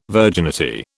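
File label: "virginity", British English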